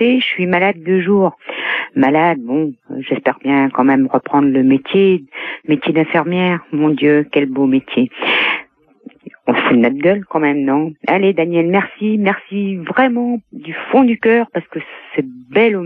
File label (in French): Hopital de nuit repondeur